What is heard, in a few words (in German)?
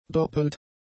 "doppelt", German; adjectives